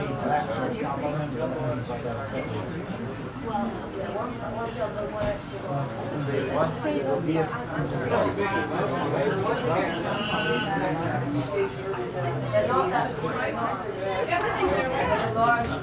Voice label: room ambience talk; scream ny